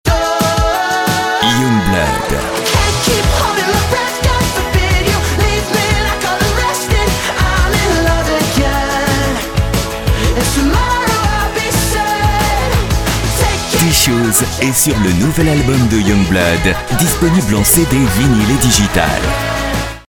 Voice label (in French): chaleureux enthousiaste, Voix off disque